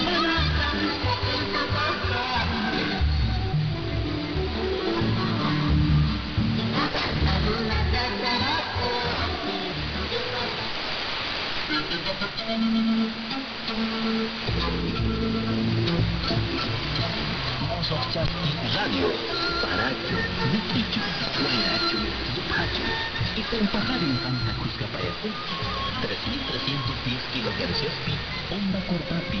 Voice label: Cochabamba Bolivia 3310.00 am; Radio Mosoj Chaski